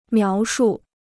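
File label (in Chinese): "描述" Chinese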